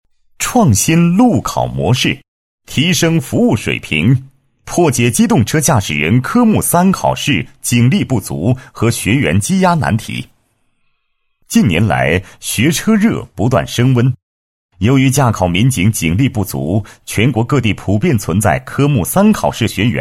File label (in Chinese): 男 公安改革 改革创新路考新模式